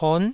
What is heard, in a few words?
"pon9", taiwanese